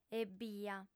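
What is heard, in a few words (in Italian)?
"ebbia", lingua sarda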